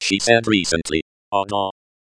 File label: "ạ²da²", Cherokee; She said recently; ᎠᏓ